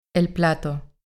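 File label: "el plato" Spanish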